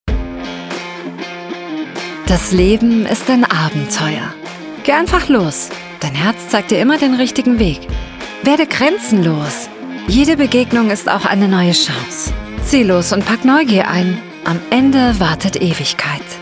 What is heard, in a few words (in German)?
Storytelling MOOD nahbar zugewandt; LOFT VOICES